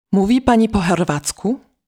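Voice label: Polish, female, Sprechen Sie Kroatisch?; Mówi Pani po chorwacku?; Polnisch (w)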